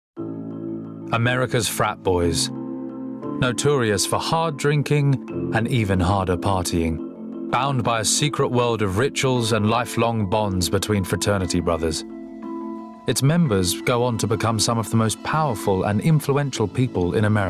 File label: Documentary